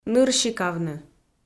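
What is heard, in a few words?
"нырщикавны", Komi, female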